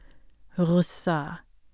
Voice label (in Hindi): "ग़ुस्सा", Hindi; hindi script